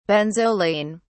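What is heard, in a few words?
"benzoline", English, British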